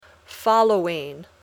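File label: "following", English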